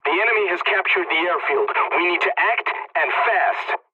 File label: pilot capture ground enemy, english; War Thunder